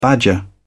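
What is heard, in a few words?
"badger", British English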